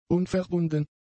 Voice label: "unverbunden", German; adjectives

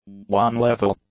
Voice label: "one-level", English; adjectives

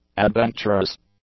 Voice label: "adventurous", English; adjectives

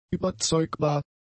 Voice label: "überzeugbar", German; adjectives

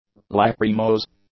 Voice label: "lachrymose", English; adjectives

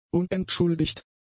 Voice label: "unentschuldigt", German; adjectives

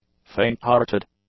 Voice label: "fainthearted", english; adjectives

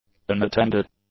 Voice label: "unattended", English; adjectives

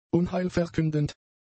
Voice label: "unheilverkündend", German; adjectives

